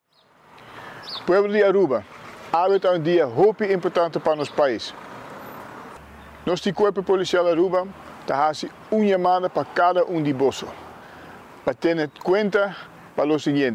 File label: RADIO NEWS ITEM; KPA; KPA CLA PA ELECCION; cuerpo policial aruba ta cla pa eleccion 2024